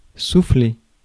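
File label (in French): "souffler", French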